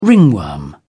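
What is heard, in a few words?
"ringworm", British English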